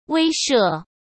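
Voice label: "威慑", Mandarin Chinese; hsk; chinese flashcards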